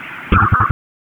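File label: Fire Department HQ Dispatch; RFD HQ DISP; wake p25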